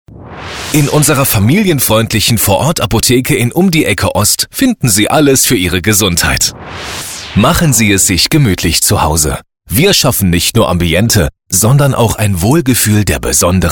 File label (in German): vertrauensvoll heimelig